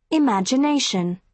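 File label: "imagination", British English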